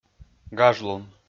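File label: "гажлун", Komi, male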